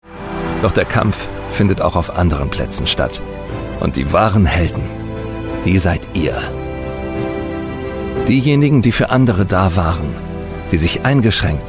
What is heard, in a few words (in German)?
Imagefilm, DE; Arminia